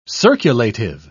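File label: "circulative", British English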